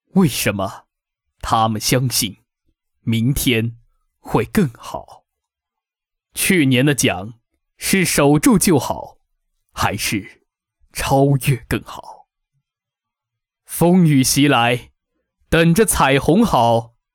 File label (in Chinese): 素旁白